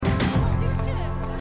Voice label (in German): Blonde Frau; WeCrashed